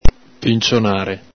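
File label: "pincionare", Venetian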